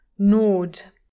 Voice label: "gnawed", english pronunciation

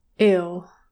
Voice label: "ill", english pronunciation

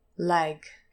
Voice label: "lag", English pronunciation, trap vowel

